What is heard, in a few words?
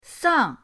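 "sang", Mandarin pinyin, fourth tone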